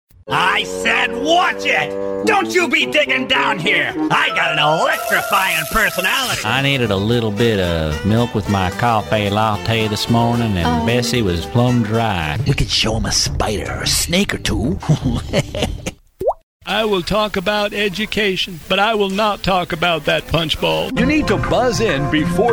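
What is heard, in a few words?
American English, acting, Variabel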